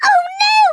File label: "RUNAWAY", Scouser